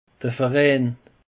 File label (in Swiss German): "verein", alsace; peche